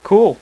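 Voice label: "Cool", male; VOICE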